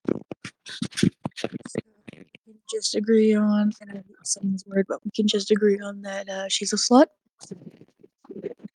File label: voice message